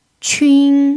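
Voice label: "qun" first tone, chinese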